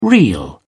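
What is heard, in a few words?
"real", British English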